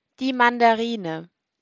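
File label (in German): "Mandarine", German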